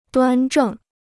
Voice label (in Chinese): "端正", Chinese